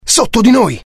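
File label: curious, Italian; mohaa; dialogue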